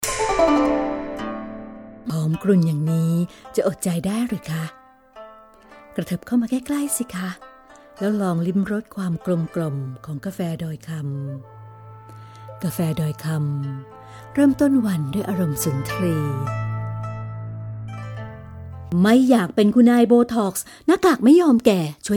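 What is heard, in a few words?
Thai, Commercial; GoLocalise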